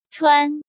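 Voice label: "Chuān", Chinese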